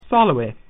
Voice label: "hi", Latin